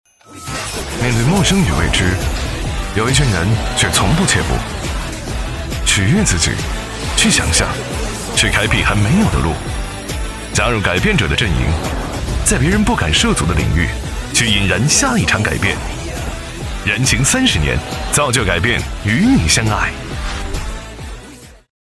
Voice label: 男 广告年轻 汽车; 奥迪; 汽车tvc广告

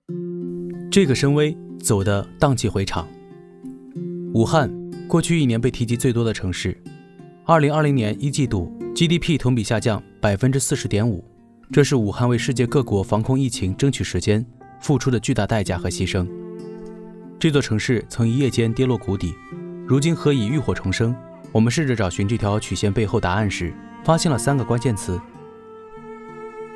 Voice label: 男 素人; 素人风格男声; 回形针; 武汉

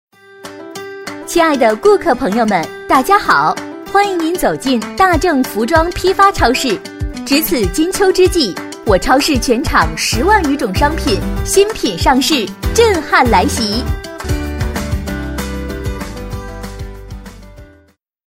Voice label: 女 商场广播 服装批发超市, 女声广播提示

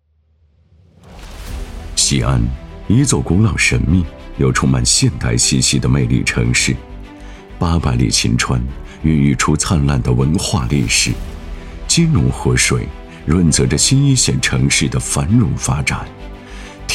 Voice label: 男, 银行宣传; 银行宣传样音; 秦农银行

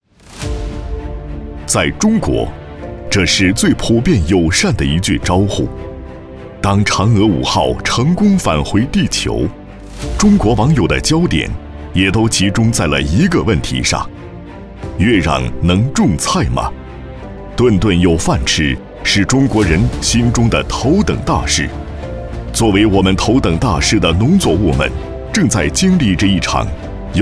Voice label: 男 智慧农业

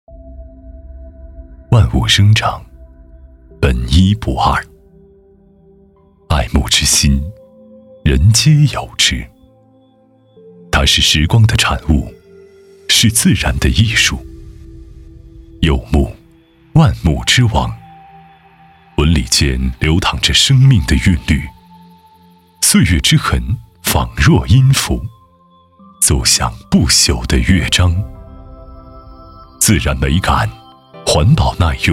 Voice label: 男 形象宣传; 走心企业形象片样音

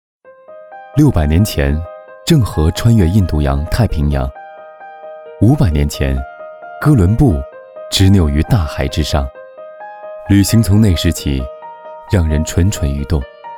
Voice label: 男 国 大音 广告 讲述类

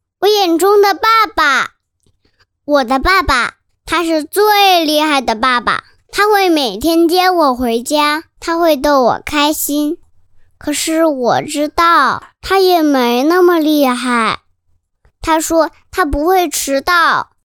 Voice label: 男 男真童; 我眼中的爸爸; 真童配音

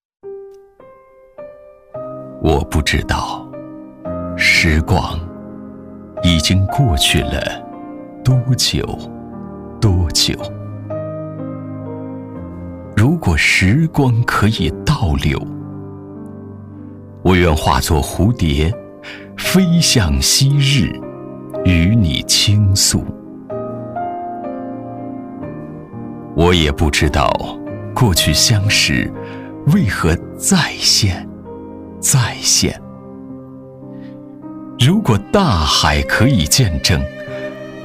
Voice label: 男国 诗歌 样音音乐; 微电影配音

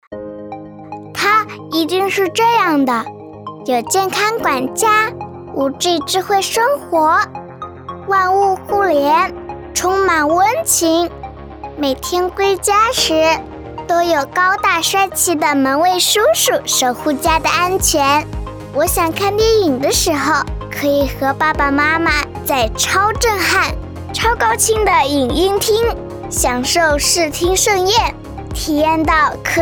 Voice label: 女真童-美好的生活; 真童配音

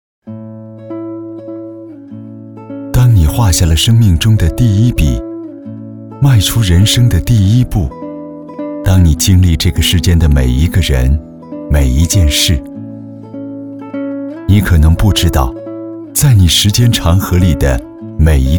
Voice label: 男 形象片; 走心企业形象片样音; 中国建筑形象片

